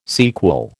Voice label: "sequel", American